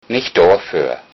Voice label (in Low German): you're welcome, Low Saxon